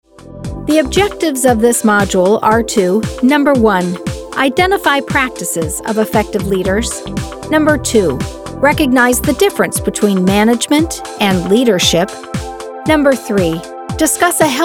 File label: elearning